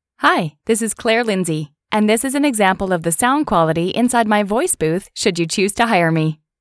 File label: Voice Booth Sound Quality